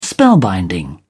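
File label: "spellbinding", English, British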